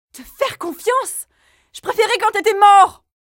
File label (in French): voix mère; Jeu vidéo; Kayne